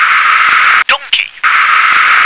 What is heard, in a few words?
"donkey", English